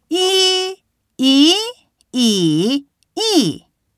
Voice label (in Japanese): 単母音イ